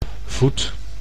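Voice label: "voet", Dutch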